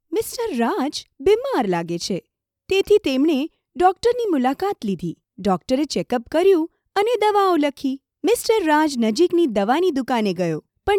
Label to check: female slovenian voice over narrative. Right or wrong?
Wrong